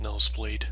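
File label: "nosebleed", US English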